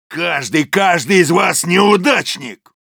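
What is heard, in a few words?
Soldier jeers, Russian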